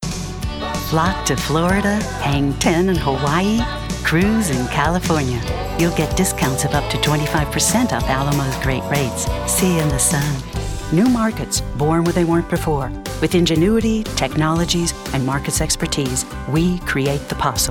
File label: Commercial